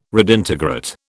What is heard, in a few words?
"redintegrate", British